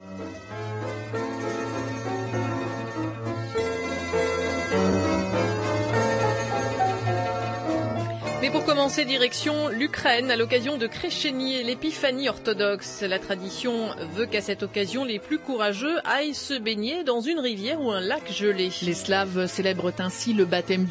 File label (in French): accents d europe; rfi